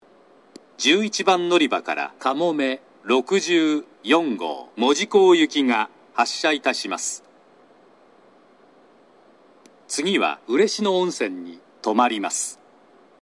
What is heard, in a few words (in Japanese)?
11番のりば発車放送; かもめ64号 門司港行き; この放送が鳴っている最中には、ホームドアは閉まっている事がほとんどです。; shin omura